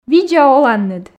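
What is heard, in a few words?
"видза оланныд", Komi, female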